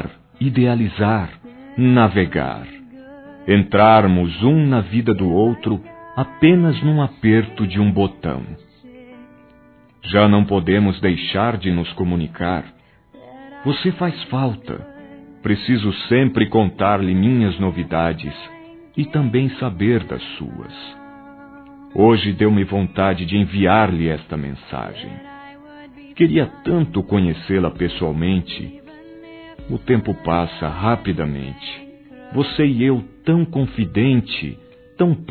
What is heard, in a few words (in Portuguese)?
Amizade Virtual Masculino, Telemensagens; Telemensagens 2017